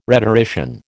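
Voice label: "rhetorician", American English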